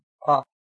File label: "po", Albanian